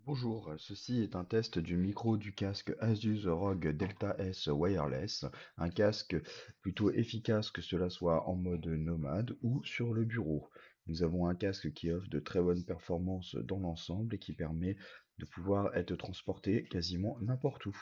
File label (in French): test micro casque asus rog delta s wireless